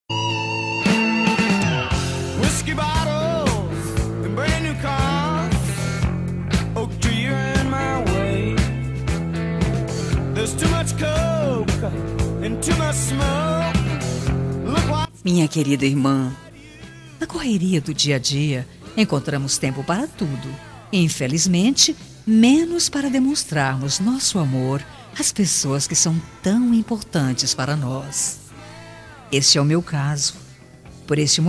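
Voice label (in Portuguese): birthday IRMA female, telemensagem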